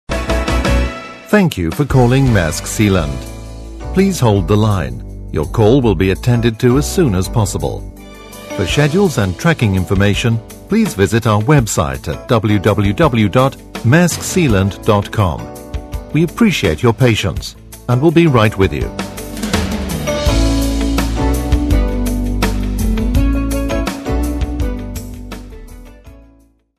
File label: Ansage vor Melden english; maers